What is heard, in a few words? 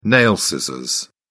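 "nail scissors", British English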